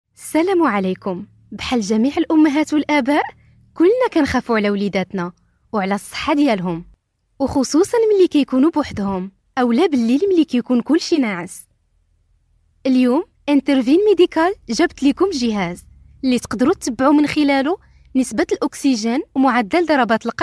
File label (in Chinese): 阿拉伯女声